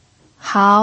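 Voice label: "hao" second tone, pinyin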